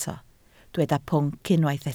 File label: "pwnc", Welsh